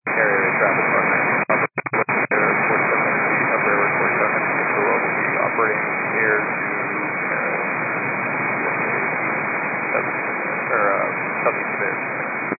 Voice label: 122.925 MHz; scanner radio